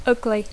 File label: "ugly", English; adjectiu; lleig